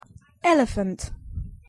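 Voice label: "elephant", English; pronunciation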